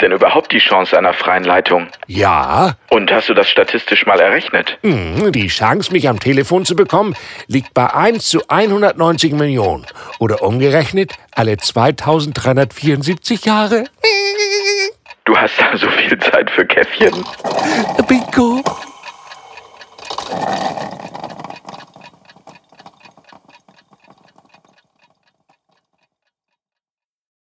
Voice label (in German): Lange Warteschleife; Baumann und Clausen